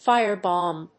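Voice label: "fire bomb", English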